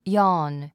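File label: "yawn", English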